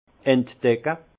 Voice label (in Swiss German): "entdecke", alsace; vin; découvrir